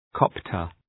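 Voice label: "copter", English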